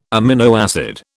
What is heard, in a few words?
"amino acid", British